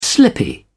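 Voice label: "slippy", British English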